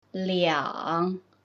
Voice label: mandarin